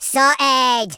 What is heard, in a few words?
"brilliant", Madchester